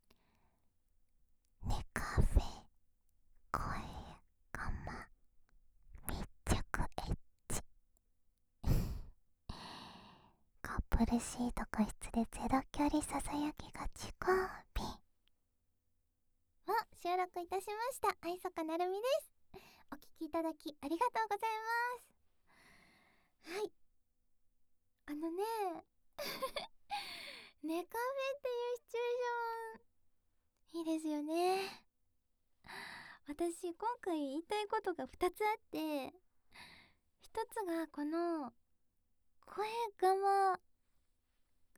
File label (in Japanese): フリートーク, ASMR